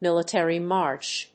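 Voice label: "military march", English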